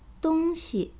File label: "dong1xi", zhongwen